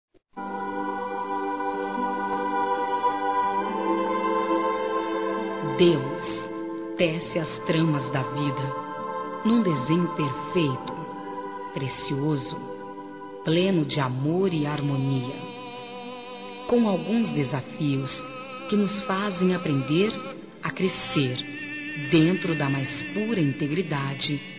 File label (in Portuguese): ANIV. RELIGIOSO F; Aniversários; Aniversários diversos; fem